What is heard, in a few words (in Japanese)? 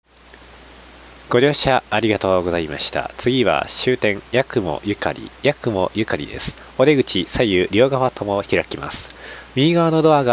春雪線終点アナウンス